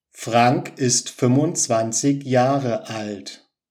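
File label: frank ist 25 jahre alt, German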